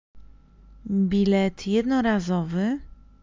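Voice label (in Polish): "bilet jednorazowy", Polish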